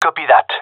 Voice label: agree, gendermerie male

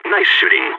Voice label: nice shot, balkan; vo

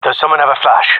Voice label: request flash, male; vo

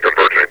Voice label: "converging", metropolice; Half Life 2

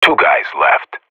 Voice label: radio bot kill count, vo professional

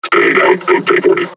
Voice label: taunt, combine charger; Half Life Alyx

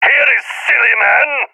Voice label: taking fire friendly, balkan; vo; balkan epic

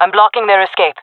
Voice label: guarding escapezone, jungle fem; player vo jungle fem

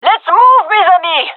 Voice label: request move, gendermerie fem; vo

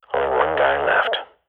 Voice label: radio bot kill count, swat

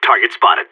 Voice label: radio enemy spotted; Source Model Packs; Counter Strike Model Pack; CS2; seal

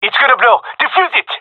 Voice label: cover defuse, male; vo

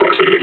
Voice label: near panic; combine charger; Half Life Alyx

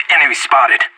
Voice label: radio enemy spotted; anarchist

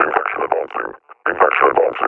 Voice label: near panic, officer; Half Life Alyx; Half Life Model Pack

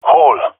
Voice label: hole, jungle male epic; vo; agents; Source Model Packs; Counter Strike Model Pack; CS2

